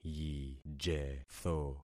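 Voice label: "yijetho", concatenated syllables; Affixing experiment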